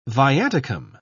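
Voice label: "viaticum", British English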